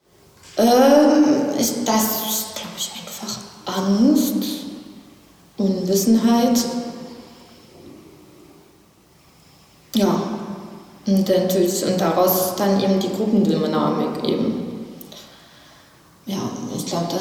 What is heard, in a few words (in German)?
thueringisch